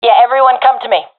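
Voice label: request regroup, professional female; vo; agents; Source Model Packs; Counter Strike Model Pack; CS2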